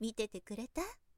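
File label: "mitetekureta", woman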